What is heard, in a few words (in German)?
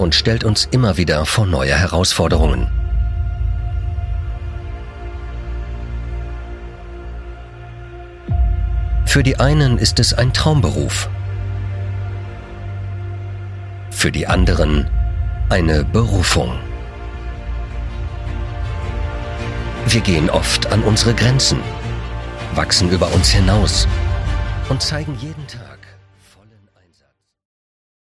Imagefilm Polizei episch; LOFT VOICES